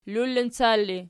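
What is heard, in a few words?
"lullincal" ergative, Archi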